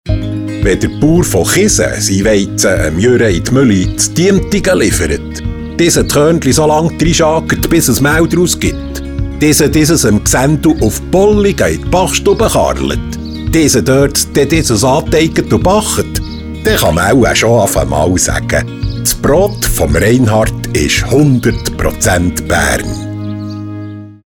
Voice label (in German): Radiospot; 100 Prozänt Bärn